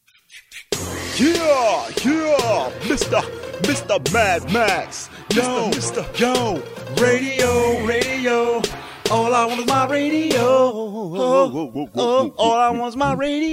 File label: Promo; Radio Free